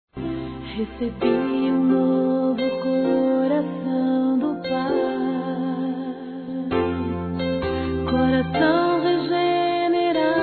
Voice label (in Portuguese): Trilha HOMENAGEM AO PAI F, Telemensagens Gospel fem; Telemensagens 2017